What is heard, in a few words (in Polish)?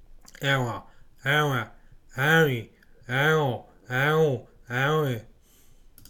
"ę", fonetyka